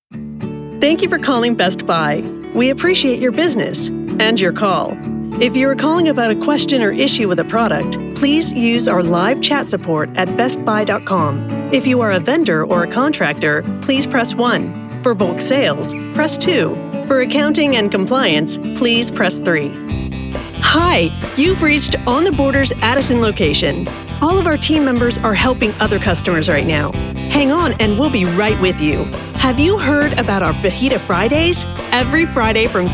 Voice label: TELEPHONY